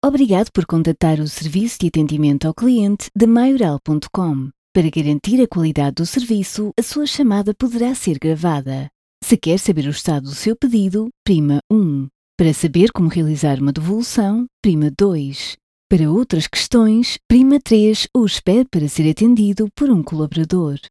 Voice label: IVR sample; Portuguese (Brazilian)

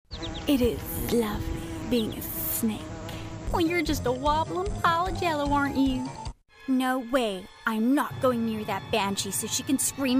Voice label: character; VoiceRealm

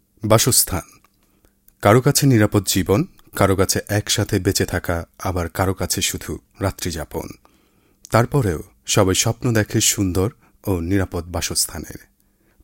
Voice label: Accommodation Naration

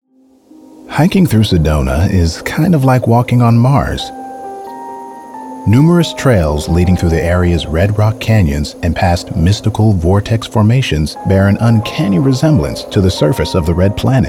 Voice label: Documentary

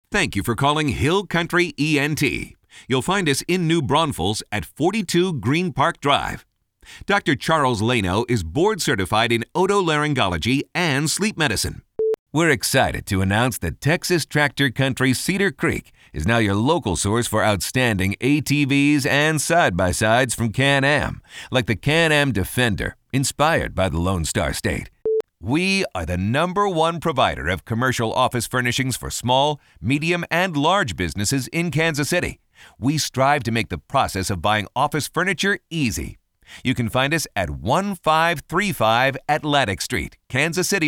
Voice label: On Hold

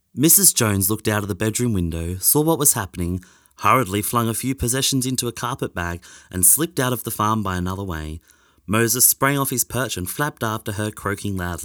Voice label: Audiobook

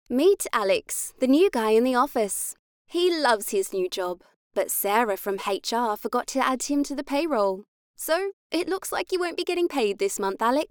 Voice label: British Commercial

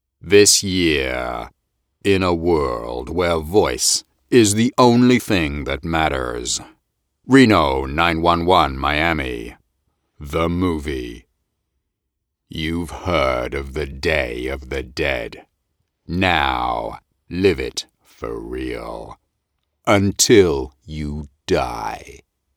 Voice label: movie trailer

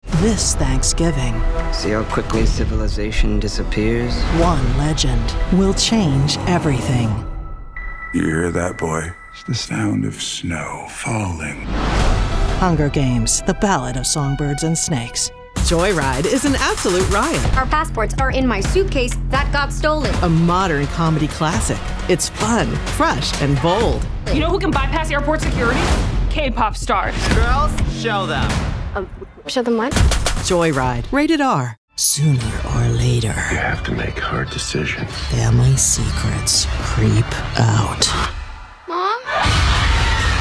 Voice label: German trailer

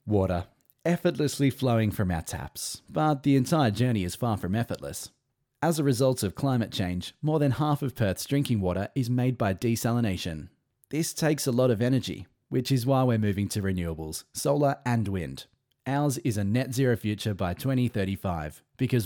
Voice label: Conversational DRY

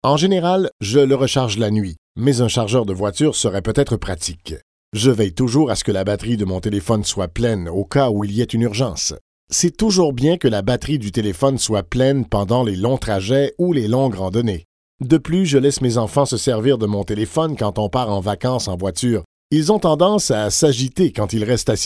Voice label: telephone

